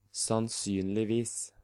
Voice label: "Sannsynligvis", Norwegian